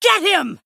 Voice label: Female Night Elf Attack